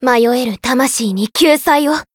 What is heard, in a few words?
zodiac burst, jp; maturika